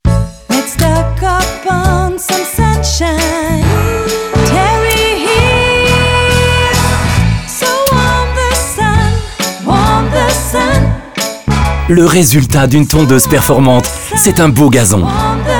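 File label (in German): Werbung Rasenmäher; gardena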